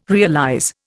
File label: "realize", Indian